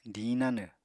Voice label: "diñ nanw", Apatani